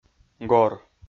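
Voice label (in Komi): "гор", Komi, male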